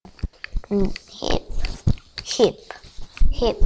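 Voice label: "hip", spoken word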